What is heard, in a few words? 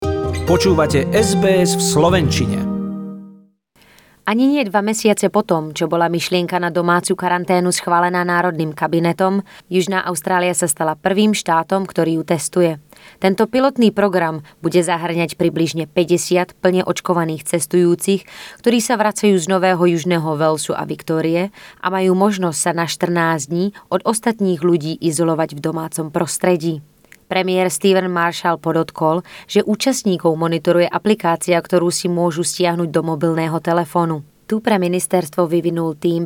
english, sbs-slovak